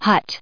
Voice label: "hut", en us